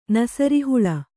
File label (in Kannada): "ನಸರಿಹುಳ", Kannada